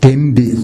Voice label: "denbi", minamiyamagata

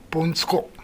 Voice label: "bontuko", minamiyamagata

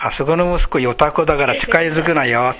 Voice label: "yotako", minamiyamagata

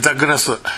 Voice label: "midagunasu", minamiyamagata

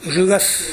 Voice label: "urugasu", minamiyamagata

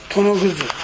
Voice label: "tonoguzu", minamiyamagata

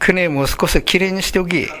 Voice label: "kune", minamiyamagata

